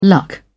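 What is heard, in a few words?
"luck", British English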